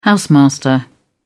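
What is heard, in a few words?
"housemaster", British English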